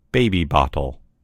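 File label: "baby bottle", American English; gcompris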